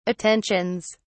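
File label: "attentions", English, British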